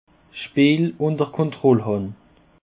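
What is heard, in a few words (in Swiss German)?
"speel", Alsatian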